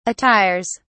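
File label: "attires", English, British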